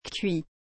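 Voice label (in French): "cuit", French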